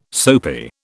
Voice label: "soapy", British